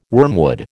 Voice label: "wormwood", American

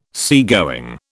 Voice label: "seagoing", British

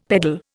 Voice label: "peddle", Indian; Dictionary

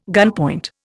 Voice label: "gunpoint", Indian

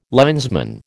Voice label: "linesman", American

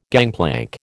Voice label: "gangplank", American

